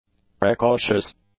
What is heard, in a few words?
"precautious", english; adjectives